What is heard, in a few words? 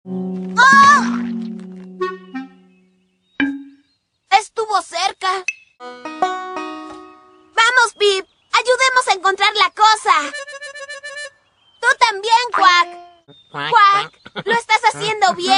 spanish latin american animation